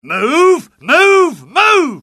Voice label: alert, UK; mohaa; dialogue

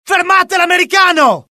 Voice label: alert, dialogue IT; mohaa; attack

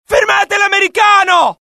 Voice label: alert, dialogue IT; mohaa; attack

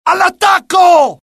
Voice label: alert, dialogue IT; mohaa; attack

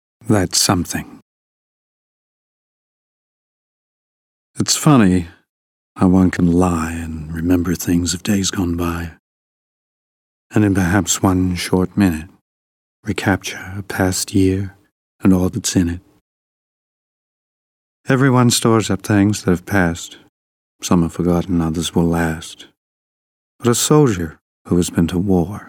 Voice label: poem; mission3; mohaa

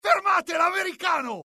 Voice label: alert, dialogue IT; mohaa; attack

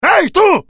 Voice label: anger, dialogue IT; mohaa; attack

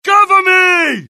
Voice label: reload, US; dialogue; mohaa

